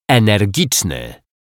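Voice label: "energiczny", Polish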